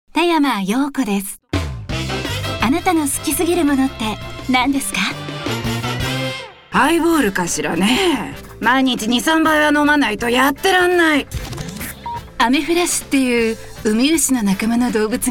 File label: female wide show